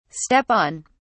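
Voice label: "step on", British English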